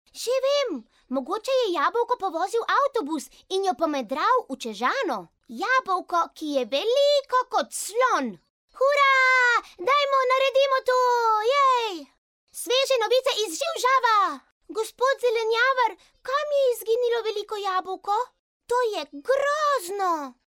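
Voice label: slovenian child voiceover locutora infantil eslovena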